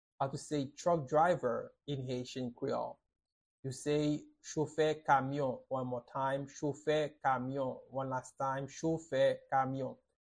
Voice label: Chofe kamyon, Haitian Creole pronunciation by a private Haitian tutor; How to say Truck Driver in Haitian Creole